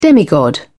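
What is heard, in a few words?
"demigod", British English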